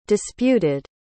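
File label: "disputed", English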